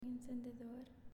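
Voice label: "Encendedor", Spanish, female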